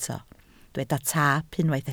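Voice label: "taap" Welsh, spoken word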